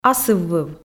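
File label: "асыввыв", Komi, female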